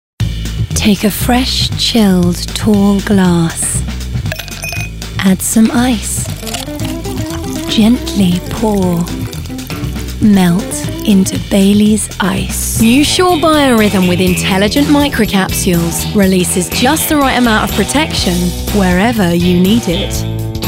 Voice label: COMMERCIALS